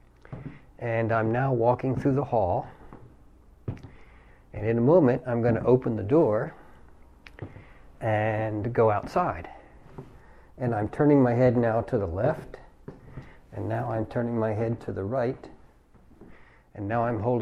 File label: testing lavalier mic